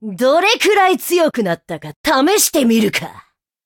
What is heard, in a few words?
levelup, ja; Cookie Run